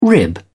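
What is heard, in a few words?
"rib", British English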